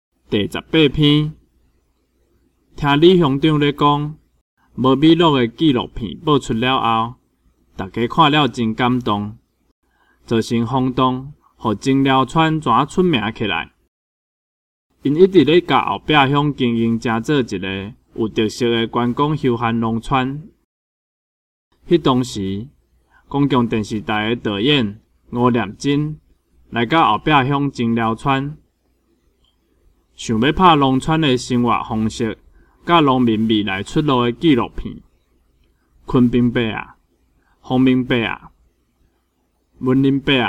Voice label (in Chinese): 文章朗讀, 閩南語; 閩南語語言能力認證; 金安咱來考C